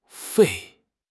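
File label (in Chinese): "肺", Chinese; HSK6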